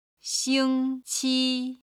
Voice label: "xing1qi1", Chinese